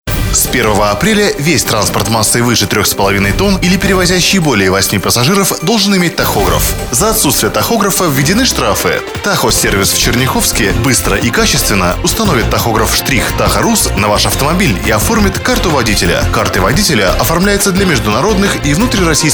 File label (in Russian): Партнерский рекламный ролик